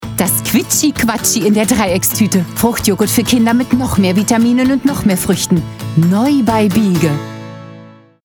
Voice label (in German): Werbung; Biege